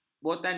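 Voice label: "botani", Malay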